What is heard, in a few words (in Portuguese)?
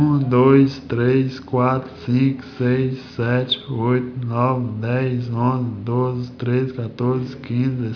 Nasal Paciente, Ressonância